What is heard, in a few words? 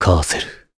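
Vox Story Japanese; Clause; Kasel; kingsraid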